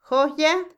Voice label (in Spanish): entuciasmarse, Otomí, mujer; Emociones; INALI